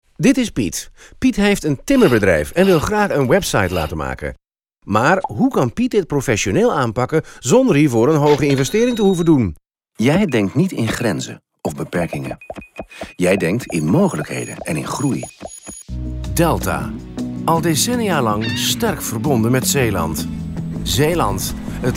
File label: Male 40 50 years Versatile, dutch netherlands